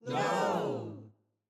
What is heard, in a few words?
audience "no"